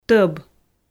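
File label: "тӧб", Komi, female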